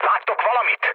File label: hun chat, speech hun; War Thunder